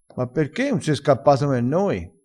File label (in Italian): "spavardo", crespinese